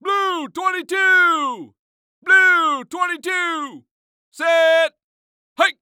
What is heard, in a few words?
Sports Human Voice Male Football Quarterback; Blue Twenty Two Set Hike